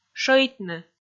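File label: "шӧйтны", Komi, female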